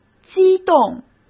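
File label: "激动", Mandarin Chinese; chinese flashcards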